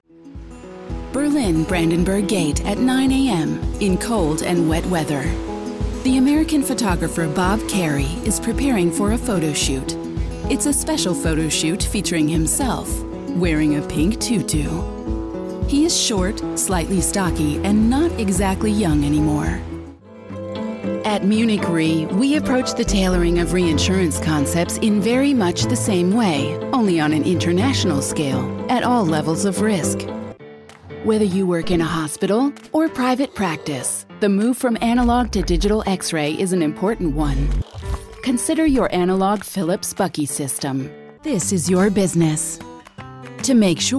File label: NARRATION IMAGE COMPILATION